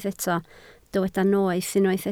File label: "noeth", Welsh